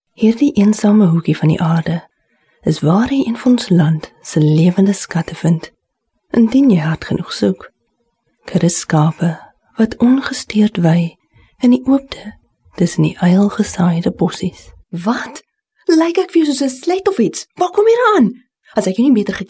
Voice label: Afrikaans